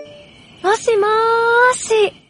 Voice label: "moshi moshi", japanese greeting